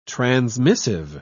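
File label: "transmissive", British English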